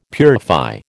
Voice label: "purify", American